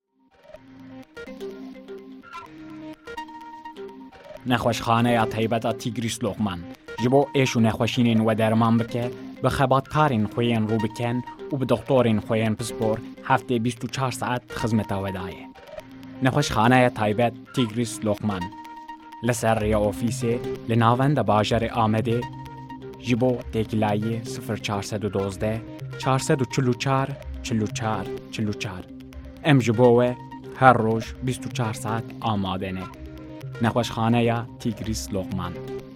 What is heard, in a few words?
Kurdish man